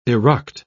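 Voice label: "eruct", British English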